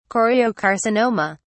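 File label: "choriocarcinoma", British English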